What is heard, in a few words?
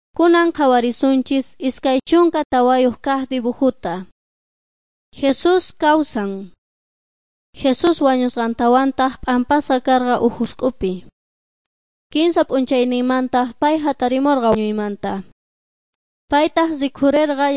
beginning with god quechua s bolivian cochabamba